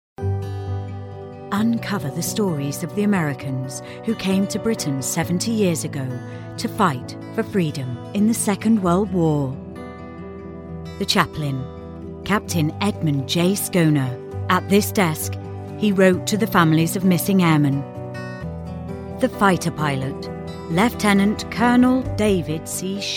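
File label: ENG UK F narration, British English, female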